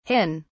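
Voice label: "hin", British English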